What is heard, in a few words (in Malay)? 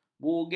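"bugis", Malay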